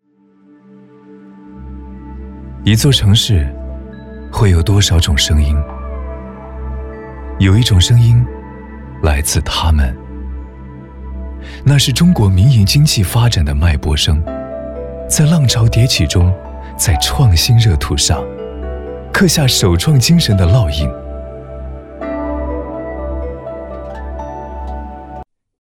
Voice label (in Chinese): 男, 宣传片, 温暖, 自然, 一座城市旁白